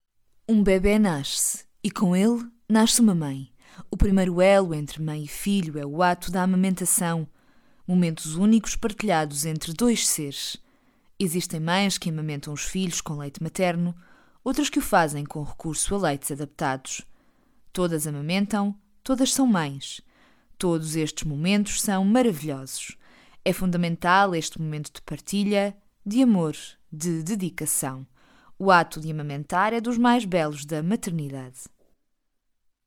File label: PORTUGUESE